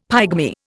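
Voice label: "pygmy", Indian English; Dictionary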